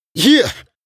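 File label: Rap Do It Adlib, vocal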